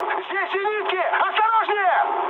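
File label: Russian damaged aa mood high, speech; War Thunder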